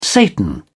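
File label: "satan", British English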